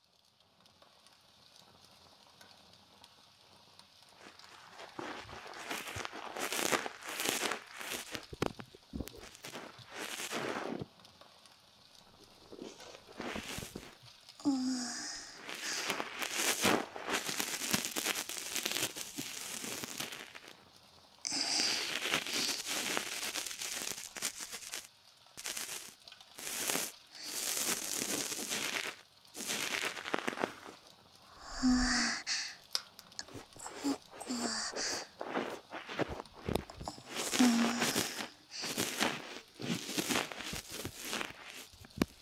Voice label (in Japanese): 耳かき（左のお耳）, ASMR; ひよの耳かき